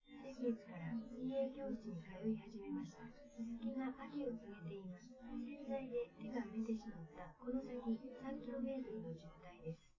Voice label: female live rec 5cm sim; SASSEC07

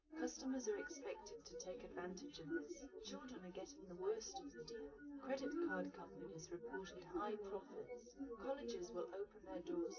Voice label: female, live rec, 250ms, 1m

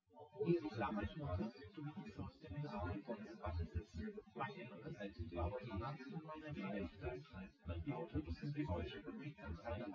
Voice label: male synthconv; SiSEC08; SiSEC underdetermined

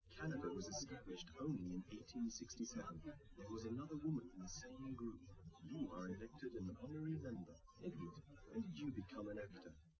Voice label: test male live rec; SiSEC11; underdetermined

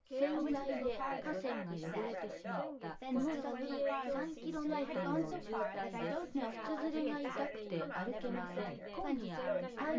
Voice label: female synthconv mix

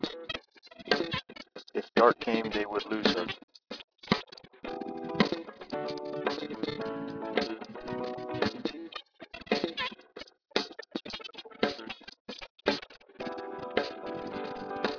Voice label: mix mus sp M

